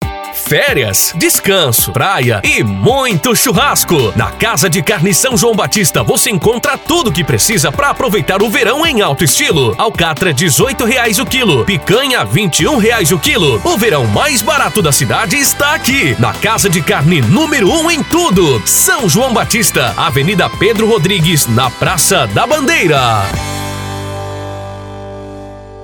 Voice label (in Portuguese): VOZ IMPACTO GRAVE vinheta para casa de carnes vinheta spot chamada comercial propaganda; anuncio